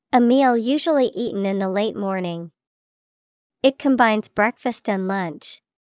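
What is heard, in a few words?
brunch, English vocabulary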